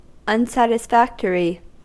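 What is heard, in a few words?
"unsatisfactory", American English; pronunciations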